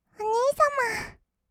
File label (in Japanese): お兄様, kawaii voice; ASMR; 二人称